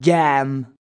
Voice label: "yam", male; MALE MISC

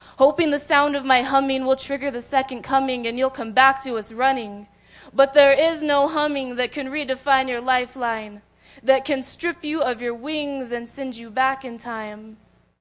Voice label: hoping that my humming, FEMALE; FEMALE MISC

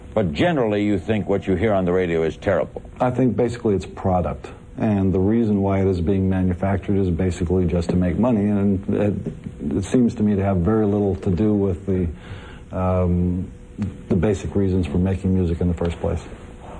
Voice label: radio is terrible product, commercials and radio

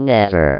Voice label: "never", VIDEO GAMES; wow

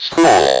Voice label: speak and spell SCHOOL; WORDS

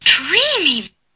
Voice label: dreamy, female; FEMALE MISC

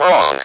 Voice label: speak and spell "wrong"

